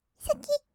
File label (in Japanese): "すきっ", kawaii voice; ASMR; 色々なワンショット